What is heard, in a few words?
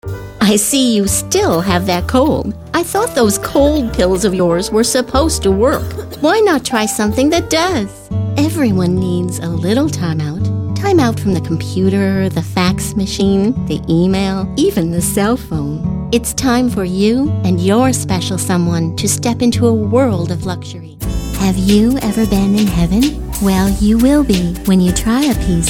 commercial